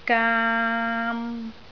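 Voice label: "gaam3", Cantonese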